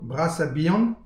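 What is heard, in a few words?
"bras ha bihan", Breton; petit precis de prononciation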